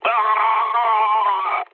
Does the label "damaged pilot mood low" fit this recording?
Yes